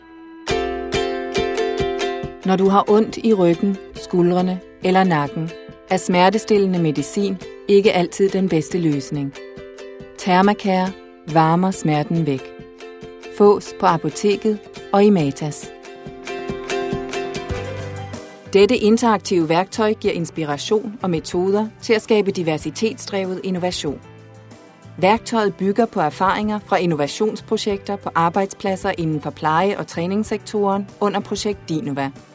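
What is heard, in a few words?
Danish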